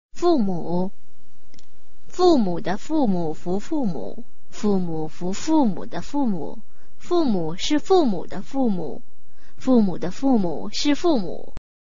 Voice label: chinese tongue twister